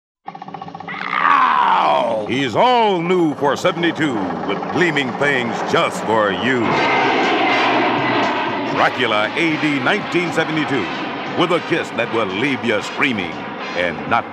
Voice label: Radio Spots; Dracula A.D. 1972; Crescendo; Black Market